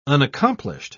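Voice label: "unaccomplished", British English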